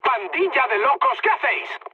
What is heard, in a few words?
player killed ally, mood high, speech